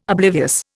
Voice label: "oblivious", Indian

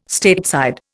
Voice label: "Stateside", Indian

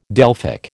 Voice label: "Delphic", American

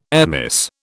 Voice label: "airmiss", British

